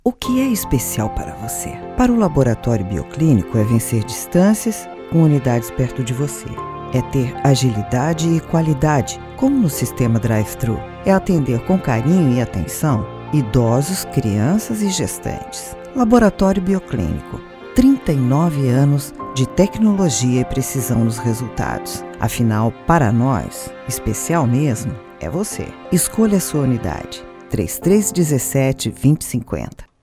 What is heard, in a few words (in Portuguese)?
SPOT; BIOCLINICO